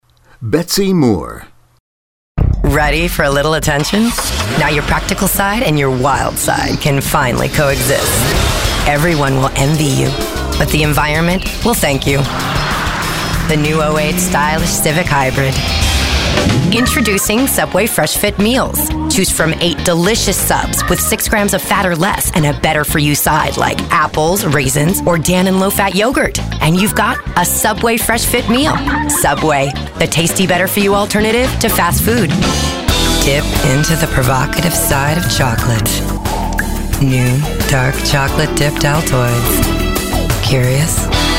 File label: Commercial